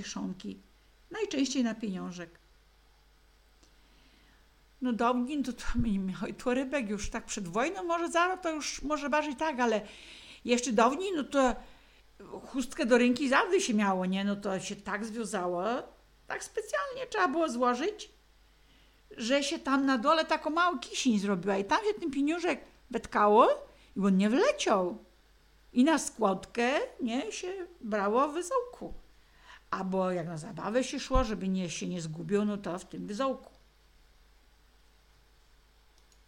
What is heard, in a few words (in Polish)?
wyzolek z chustki, gwarowy